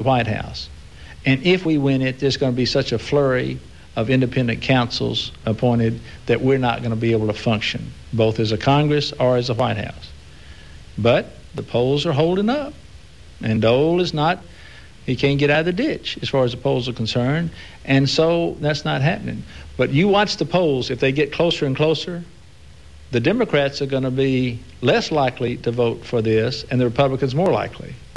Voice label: Arkansas, dialect

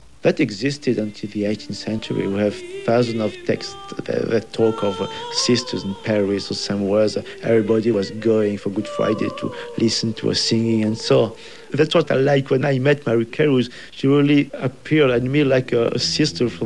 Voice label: ESL (French)

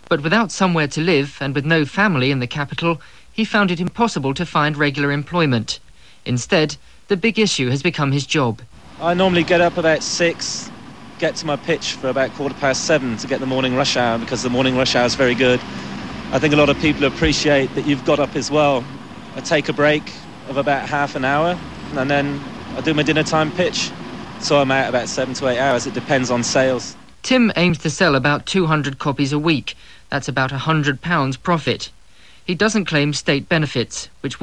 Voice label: British London, dialect

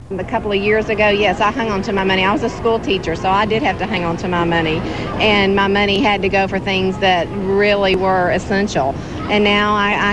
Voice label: Texas Dallas female, dialect

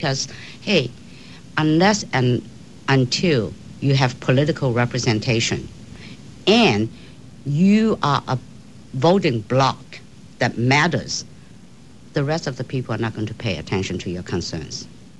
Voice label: Asian Chinese, dialect

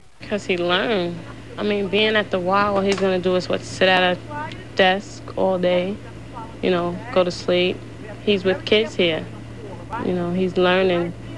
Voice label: AAE Brooklyn, African American

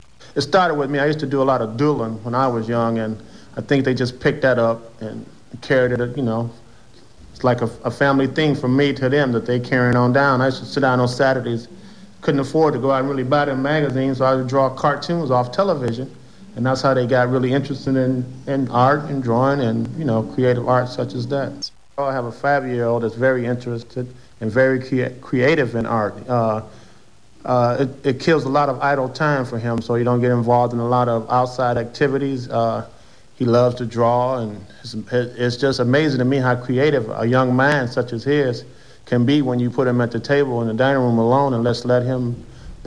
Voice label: AAE Cleveland, African American

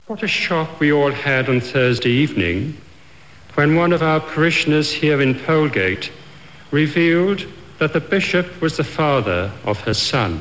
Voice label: British RP, dialect

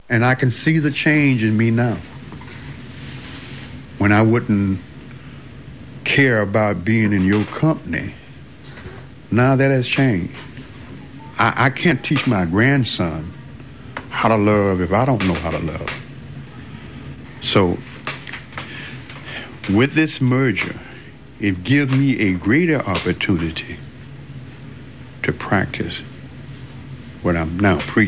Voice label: AAE Texas male, African American

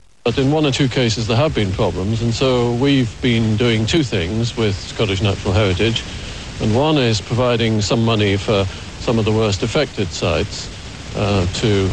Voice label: British Scottish, dialect

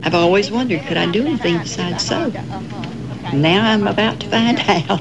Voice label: Alabama female, dialect

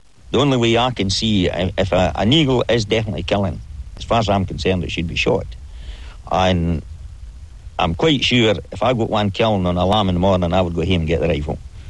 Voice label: Scottish, dialect

